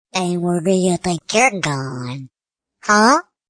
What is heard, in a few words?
Where You Going, Attitude, Female